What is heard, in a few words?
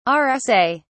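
"rsa", British English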